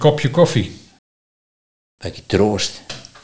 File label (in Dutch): "Bakkie troost", Nieuw Lekkerlands dialect